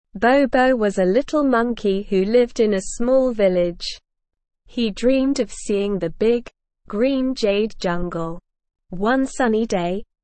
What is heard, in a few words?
ESL Short Stories for Kids Beginner SLOW Reading; The Journey to the Jade Jungle